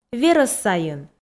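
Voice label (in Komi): верӧс сайын, Komi, female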